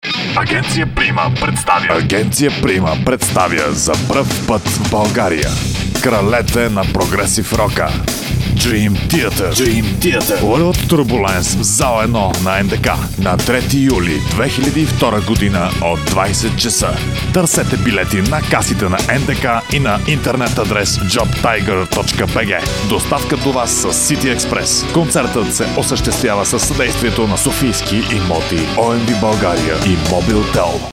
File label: radio ad